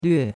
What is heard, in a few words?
"lve4", chinois; Cours-de-Chinois